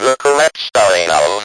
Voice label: speak and spell, "the correct spelling of"

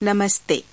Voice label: "namaste", female; FEMALE MISC

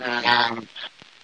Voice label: jibjab GROOG ON, Lo-Fi Jibber Jabber

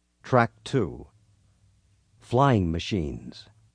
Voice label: flying machines, zany sfx announcer

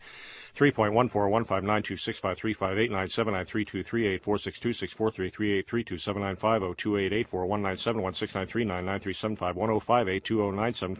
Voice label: recites pi, male spoken word

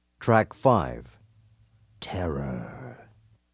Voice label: terror, zany sfx announcer

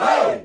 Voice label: "hey", Voice; single hits

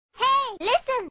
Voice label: hey listen, Children

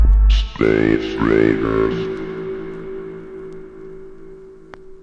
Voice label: robot space raiders, Robotic; Robotic or Stuttery or Spooky

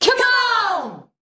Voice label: "cmon" (delay verb), Voice; single hits

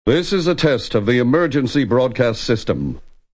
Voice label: this is a test of the e, male spoken word; MALE MISC

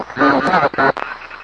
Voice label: radio voice, Distorted Radio Voice

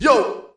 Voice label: "yo", voice; single hits

